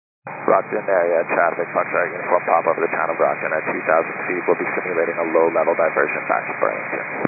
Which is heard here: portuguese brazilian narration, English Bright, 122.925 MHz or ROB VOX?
122.925 MHz